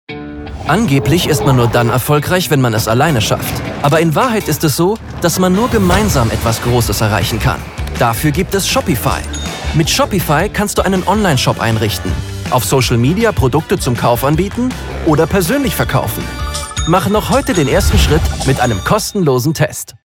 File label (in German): Werbung de Onlineplattform verbindend; Shopify